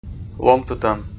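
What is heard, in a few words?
"ломтытӧм", Komi, male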